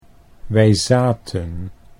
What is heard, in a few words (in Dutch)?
wij zaten, Dutch